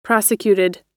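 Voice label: "prosecuted", American English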